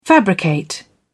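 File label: "fabricate", British English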